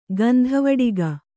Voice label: "ಗಂಧವಡಿಗ", Kannada

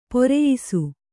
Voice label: "ಪ್ರಾಯಶಃ", kannada

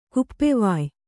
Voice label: "ಕುಪ್ಪೆವಾಯ್", kannada

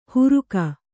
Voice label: "ಹುರುಕ", Kannada

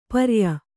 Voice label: "ಪರ್ಯ", Kannada

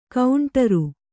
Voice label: "ಗಂಡೀರ", kannada

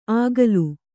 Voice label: "ಆಗಲು", Kannada

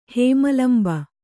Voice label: "ಹೇಮಲಂಬ", Kannada

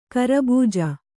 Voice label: "ಖರಬುಜ", Kannada

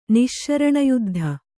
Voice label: "ನಿಶ್ಶರಣಯುದ್ಧ", kannada

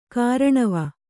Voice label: "ಕೂರ್ನೋವು", Kannada

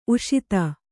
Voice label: "ಉಷತಿ", Kannada

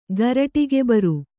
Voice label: "ಗರಟಿಗೆಬರು", Kannada; garaṭigebaru; (Verb); to guard.; to have dizziness.

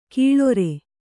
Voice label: "ಕೀಳೊರೆ", Kannada

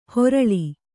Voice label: "ಹೊರಳಿ", Kannada

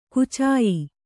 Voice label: "ಕುಚಾಯಿ", Kannada

